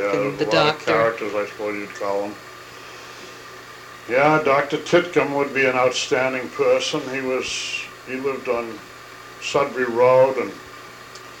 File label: Oral history with 73 year old male Concord Massachusetts; american english dialect